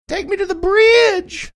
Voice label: saying take me to the bridge funny